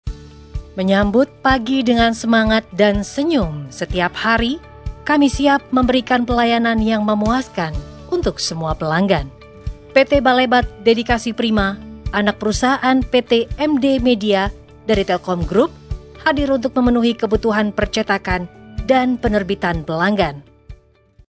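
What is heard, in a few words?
Indonesian formal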